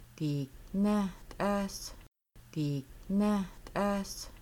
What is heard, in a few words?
Making Bannock You 3 are frying chicken, Kaska